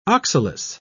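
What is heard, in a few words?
"oxalis", British English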